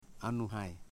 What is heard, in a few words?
"head", Foe